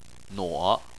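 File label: "nuo" third tone, Chinese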